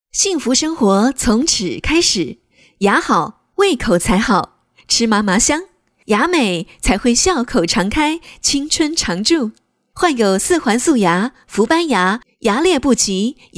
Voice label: 女 广告; 圣贝口腔

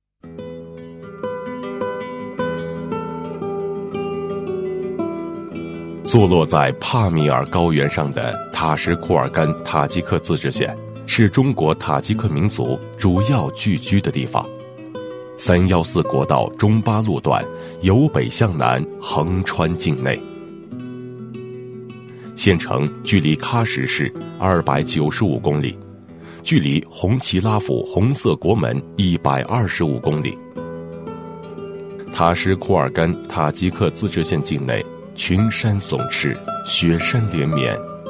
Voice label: 男 浑厚 深情 稳重, 国语男声配音; 城市宣传; 塔吉克自治县; 年轻磁性

